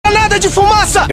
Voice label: militia multiplayer voices battle chatter; modern warfare 2

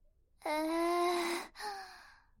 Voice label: ehhh cute anime voice

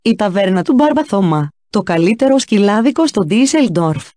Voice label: taverna female

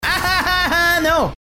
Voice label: ahahahano

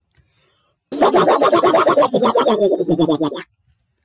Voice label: daffy duck impression

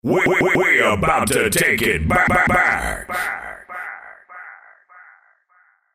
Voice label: vocal take it back echo; djdrops247

